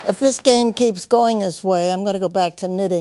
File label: upset; fertig classic games; ethel